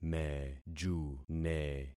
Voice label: "mejune", concat syllables; Affixing experiment

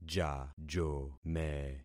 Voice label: "jajome", concat syllables; Affixing experiment

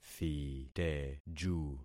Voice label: "thiteju", concat syllables; Affixing experiment

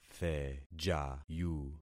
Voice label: "thejayu", concat syllables; Affixing experiment

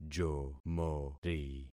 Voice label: "jomori", concat syllables; Affixing experiment

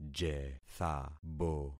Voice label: "jethabo", concat syllables; Affixing experiment

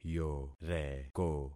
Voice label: "yoreko", concat syllables; Affixing experiment

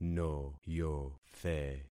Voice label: "noyothe", concat syllables; Affixing experiment

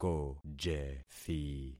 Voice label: "kojethi", concat syllables; Affixing experiment

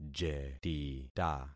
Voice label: "jetita", concat syllables; Affixing experiment

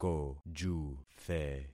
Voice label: "kojuthe", concat syllables; Affixing experiment

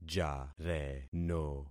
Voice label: "jareno", concat syllables; Affixing experiment

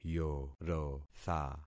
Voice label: "yorotha", concat syllables; Affixing experiment

